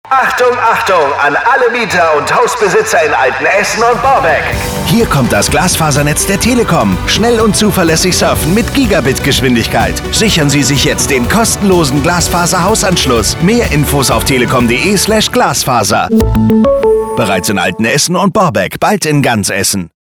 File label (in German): Telekom Glasfaser Funkspot